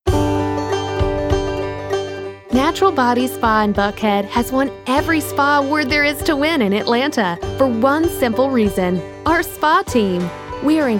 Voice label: Advertising Southern

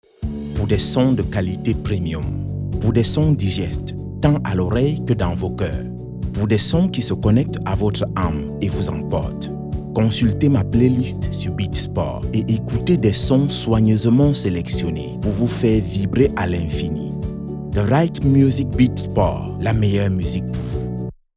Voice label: FR African

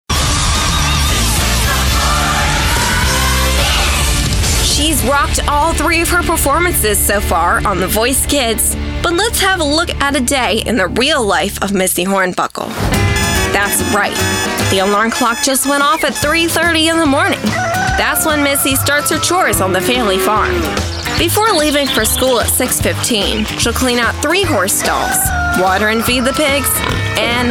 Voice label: TV Narration

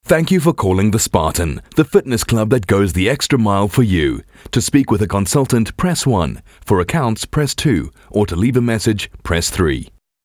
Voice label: IVR

